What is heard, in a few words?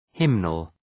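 "hymnal", English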